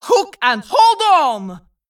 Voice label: Female Vrykul Attack